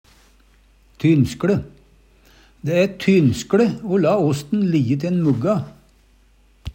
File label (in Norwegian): tynskLe, Numedalsmål